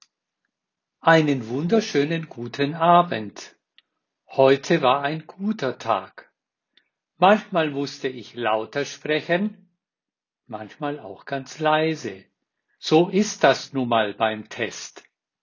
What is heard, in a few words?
Airpods